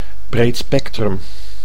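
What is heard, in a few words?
"breedspectrum", Dutch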